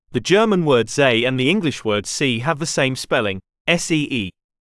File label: multilingual spelling